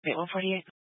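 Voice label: Police 1 Dispatch, Scanner audio recording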